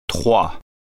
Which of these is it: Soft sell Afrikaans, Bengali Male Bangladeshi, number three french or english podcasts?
number three french